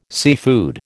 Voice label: "seafood", American